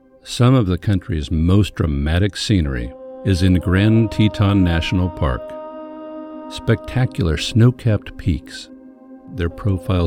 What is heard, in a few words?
Narration; Grand Tetons